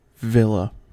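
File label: "villa", US English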